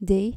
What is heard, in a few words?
"dij" dutch